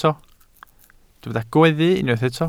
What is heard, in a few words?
"gweddu", Welsh